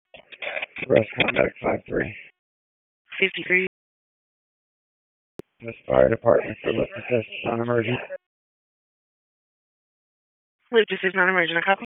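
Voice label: EMS DISP, radio; wake p25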